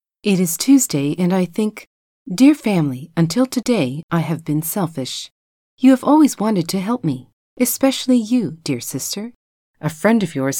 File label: normal (female); englisch lernen; onlinekurs